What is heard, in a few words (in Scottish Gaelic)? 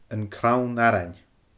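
"An Crann arain", Scottish Gaelic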